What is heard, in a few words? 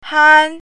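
"han1", Chinese pinyin, first tone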